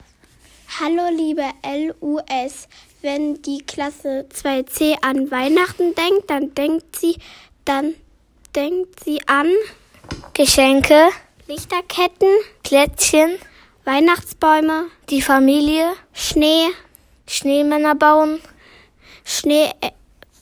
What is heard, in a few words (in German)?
Durchsage